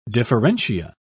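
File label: "differentia", British English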